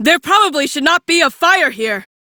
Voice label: Female, en; Crew See Fire; Warframe; Railjack Crew; Cephalon Suda